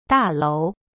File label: "大楼", Chinese; da lou; cyberchinese